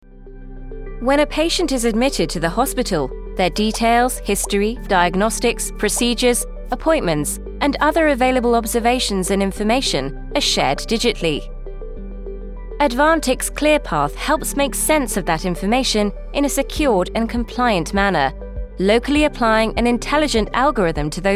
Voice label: Medical Science, en-NZ